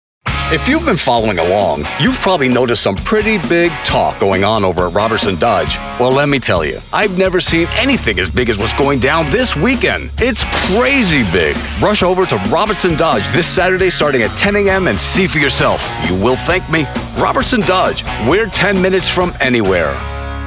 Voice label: Automotive Voice Over; Robertson Dodge